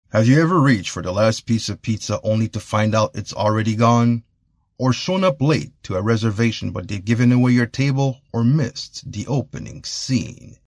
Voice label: SUV english